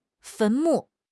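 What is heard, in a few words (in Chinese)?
"坟墓", Chinese; HSK6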